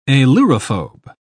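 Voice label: "ailurophobe", British English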